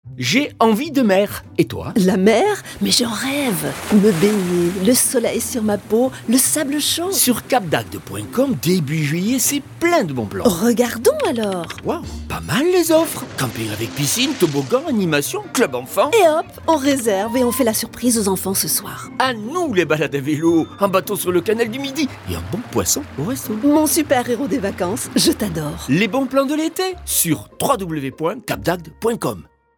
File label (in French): spot radio; toulouse et lyon